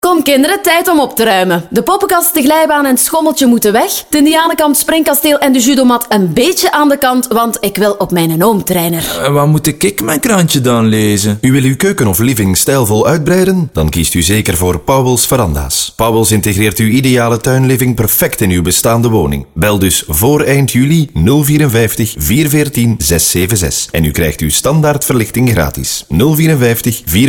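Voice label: Flemish, female, commercial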